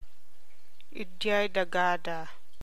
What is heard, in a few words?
Ilocano